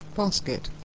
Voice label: "basket", English

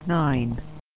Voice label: "Nine", English

